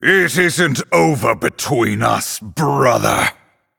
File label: Male Night Elf Start